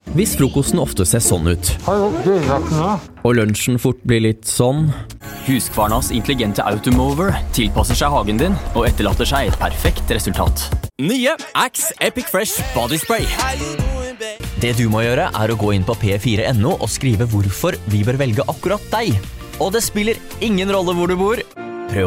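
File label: NO M commercial, Norwegian, male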